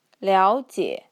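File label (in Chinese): "liaojie", Mandarin Chinese; 了解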